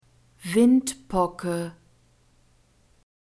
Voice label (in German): "windpocke", German